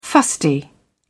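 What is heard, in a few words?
"fusty", British English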